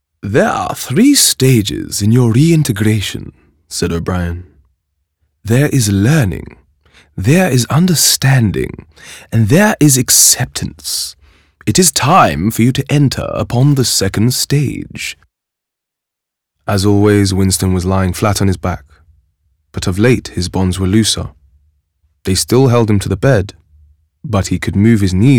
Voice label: Audiobook, Multi-cultural English, Current, Versatile, Charming, Warm